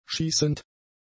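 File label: "schießend", German; adjectives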